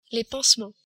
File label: "pansements", french